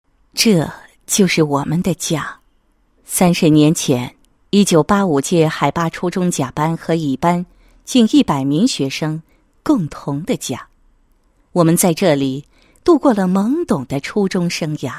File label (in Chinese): 女 散文; 写给海坝中学30周年同学聚会